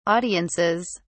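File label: "audiences", British English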